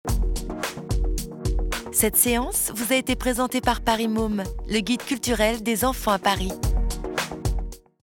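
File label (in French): Djeune, comédienne voix off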